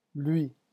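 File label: "lui", French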